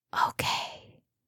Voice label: asmr girl "ok", she whispered; ringtone; asmr ringtones